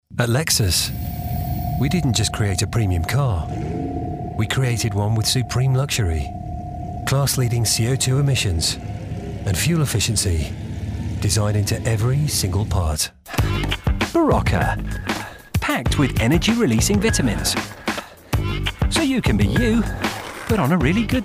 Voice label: commercial